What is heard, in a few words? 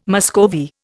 "Muscovy", Indian